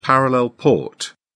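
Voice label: "parallel port", British English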